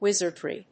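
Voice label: "wizardly", English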